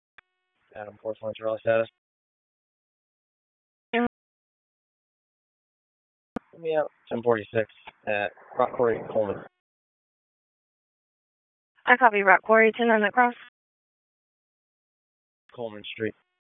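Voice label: Raleigh Police Southeast District Dispatch, p25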